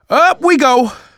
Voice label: revive friend, survivor voice manager; Left 4 Dead 2; left4dead2 dlc2

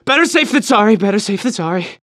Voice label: radio initial broadcast

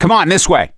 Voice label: misc directional, voice; gambler

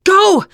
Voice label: emphatic go, teen girl; player; survivor; voice

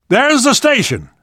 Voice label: world hospital, namvet, survivor voice

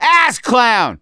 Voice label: reaction negative, voice; gambler

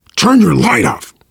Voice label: kill that light, voice, biker; Left 4 Dead 2; left4dead2 dlc3; survivor

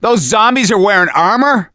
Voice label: see armored, voice; gambler

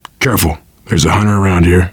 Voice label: heard hunter, voice, biker; Left 4 Dead 2; survivor

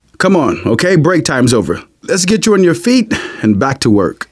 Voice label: revive friend, survivor voice manager

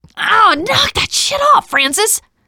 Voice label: teen girl friendly fire

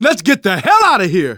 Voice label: emphatic run, manager, survivor, voice; Left 4 Dead 2; left4dead2 dlc2

